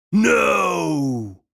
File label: english warrior crying vocal no cry male 30s voice